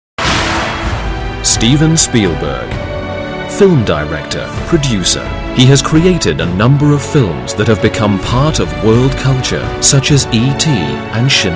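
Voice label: Corporate, English British Male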